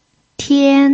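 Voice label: "tian", first tone, Mandarin Chinese pinyin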